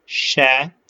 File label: short voyels arabic alphabet